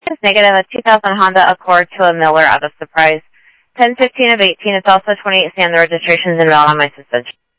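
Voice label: Sheriff Lake Patrol, scanner audio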